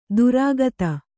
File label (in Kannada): "ದೀರ್ಘತೆ", Kannada